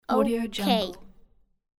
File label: kid voice saying okay